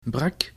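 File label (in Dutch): "brak", Dutch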